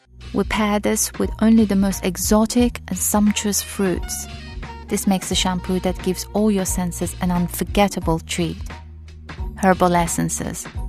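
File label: Farsi English; Farsi, Middle Eastern, Male, 20s-30s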